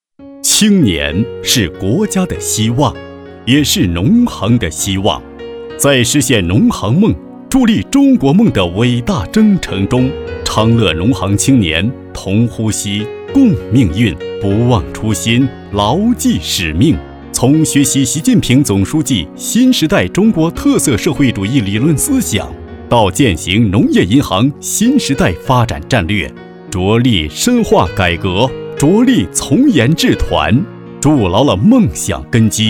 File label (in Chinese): 男 宣传片 农业银行; 昌乐县